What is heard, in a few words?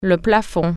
ceiling, french